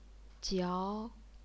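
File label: "jiao", Chinese, second tone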